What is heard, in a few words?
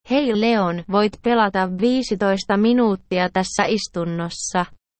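speech sample, Finnish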